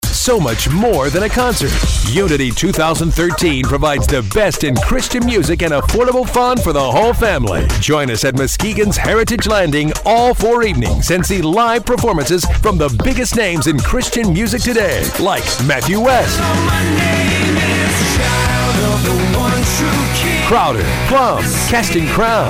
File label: Radio Spot; Unity 2013; JQ 99